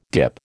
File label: "DIP", American